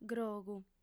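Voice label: "grogu", Sardinian, female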